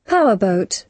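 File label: "powerboat", British English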